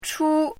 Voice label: "chu1", chinese; chinese-voice